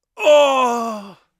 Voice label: crit, Mage Voice; Mage Voice Pack